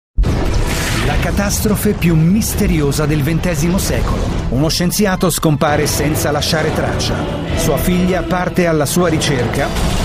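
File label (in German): Italian Werbung Dynamisch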